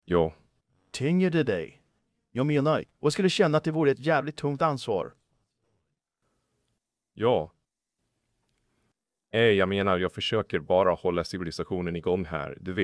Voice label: swedish; Subformer